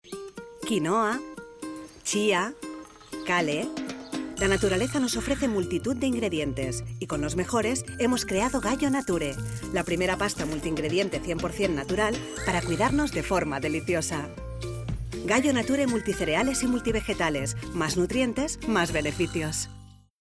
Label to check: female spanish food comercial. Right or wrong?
Right